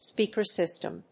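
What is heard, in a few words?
"speaker system", English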